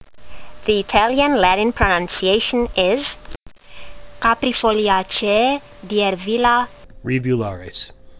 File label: latin; Diervilla rivularis